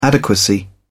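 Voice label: "adequacy", British English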